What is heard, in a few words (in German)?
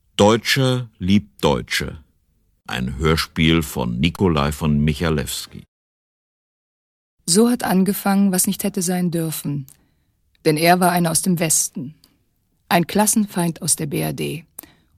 Hoerspiel; Deutscher liebt Deutsche